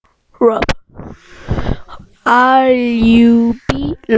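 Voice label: "rub", spoken word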